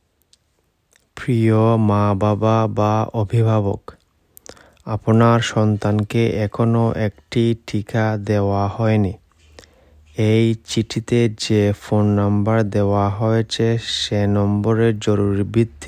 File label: Bengali Audio